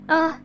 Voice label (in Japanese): ダメージボイス; ドンキーコング６４